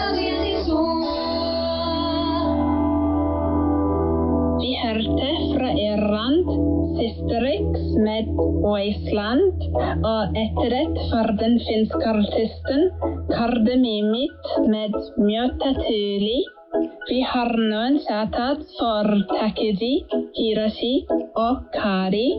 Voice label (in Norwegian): Radiostemmeklipp